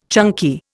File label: "chunky", Indian